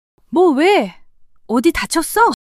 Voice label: fluster, monologue